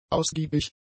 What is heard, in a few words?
"ausgiebig", German; adjectives